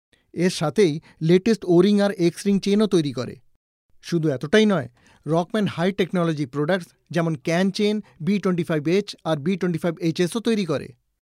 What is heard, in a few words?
BENGALI MALE